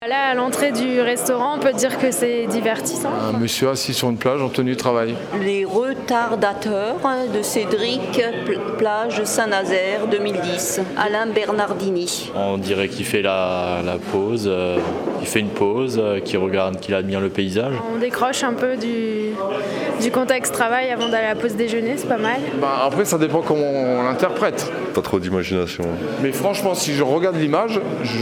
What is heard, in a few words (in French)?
MICROTROTTOIR; VERNISSAGE ALSACE